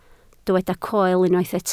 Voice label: "coel", Welsh

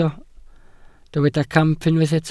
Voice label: "camp", Welsh

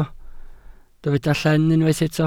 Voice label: "llen", Welsh

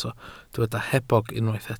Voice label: "hebog", Welsh

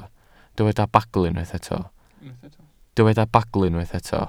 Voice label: "bagl", Welsh

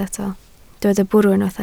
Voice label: "bwrw", Welsh

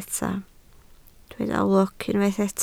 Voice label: "lwc", Welsh

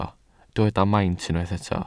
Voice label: "maint" Welsh

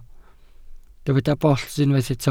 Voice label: "bollt", Welsh

